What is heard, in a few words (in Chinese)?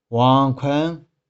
"wong1khueng5", 玉環